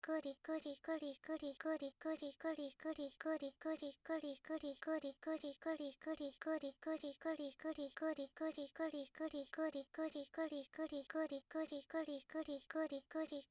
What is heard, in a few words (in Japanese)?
くりくりボイス, 汎用エロボイス; おまけ音声（汎用指示ボイス・汎用エロボイス）